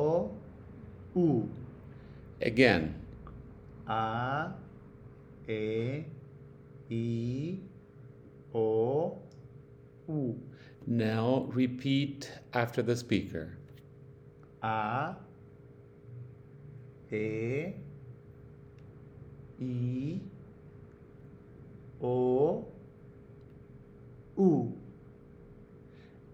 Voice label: Spanish Vowels